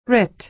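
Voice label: "writ", American English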